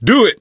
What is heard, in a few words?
misc directional, coach, survivor voice